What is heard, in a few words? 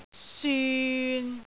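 "syun6", Cantonese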